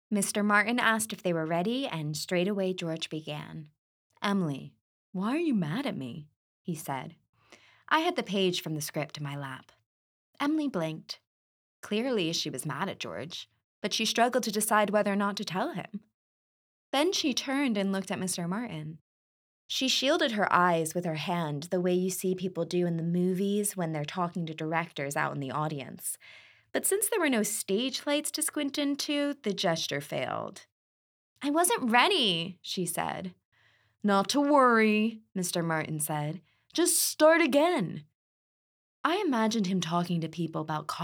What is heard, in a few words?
Adult Fiction Audiobook Sample US, American, Youthful, Musical, Friendly, Versatile, Smooth, Charming